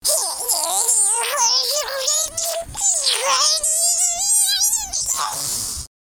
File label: angry, speech, Alien; Worms3D